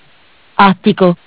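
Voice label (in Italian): "Attico", Italian; Bentel; BLE320; MESSAGGI VOCALI; Audio BGS220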